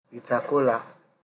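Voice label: "bitterkola", Nigerian Pidgin, male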